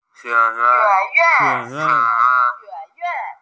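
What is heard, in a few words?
"college", Mandarin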